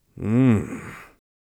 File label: tired, mage voice; Mage Voice Pack